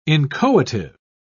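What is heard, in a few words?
"inchoative", British English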